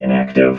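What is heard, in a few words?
"inactive", robot voice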